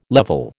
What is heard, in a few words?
"level", American